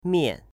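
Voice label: "mian", Chinese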